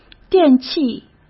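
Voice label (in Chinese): "电器", Mandarin; chinese-flashcards; hsk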